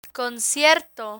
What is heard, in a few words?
"concierto", Spanish